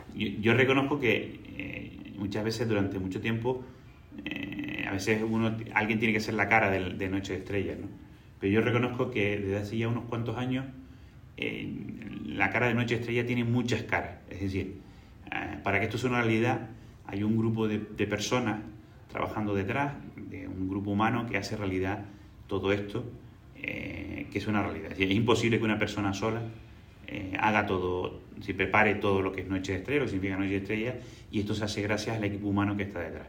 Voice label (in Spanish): Declaracion entrevista